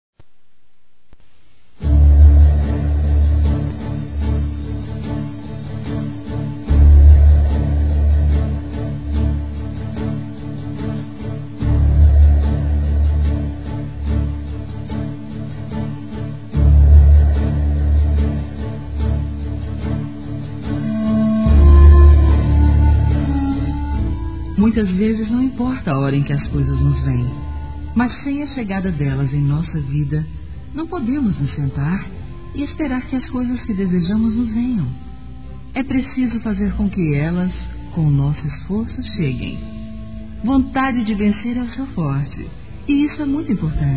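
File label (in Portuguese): parabéns pelo sucesso, feminino; Telemensagens; Parabenização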